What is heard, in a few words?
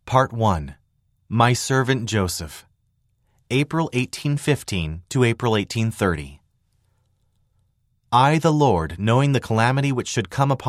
male voice; part 1 my servant joseph april 1815 april 1830; eng